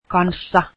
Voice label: with, finnish

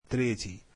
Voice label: russian third

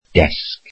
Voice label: welsh desk

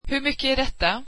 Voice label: swedish how much is this

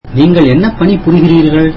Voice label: tamil What do you do for a living

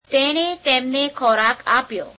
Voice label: gujarati, he gave them food; [3rd pl. object pronoun]; તેણે તેમને ખોરાક આપ્યો; [teeṇe teemene kheoreāk āpe'yeo]

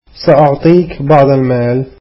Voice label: arabic I will give you money

